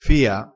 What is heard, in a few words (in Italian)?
"fia", crespinese